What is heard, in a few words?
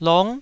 "long9", Taiwanese syllable